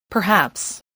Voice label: "perhaps", English, voice